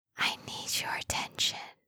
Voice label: asmr girl i need your attention; asmr ringtones